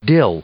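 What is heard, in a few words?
"dill", English; noun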